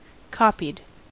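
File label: "copied", American English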